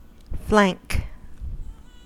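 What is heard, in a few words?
"flank", US English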